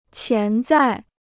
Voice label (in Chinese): "潜在", Chinese